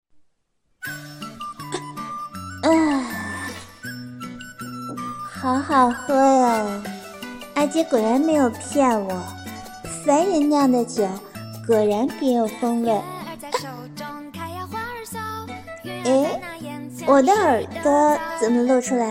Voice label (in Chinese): 旁白配音